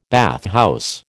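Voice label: "bathhouse", American English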